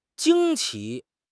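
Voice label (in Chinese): "惊奇", Chinese; HSK6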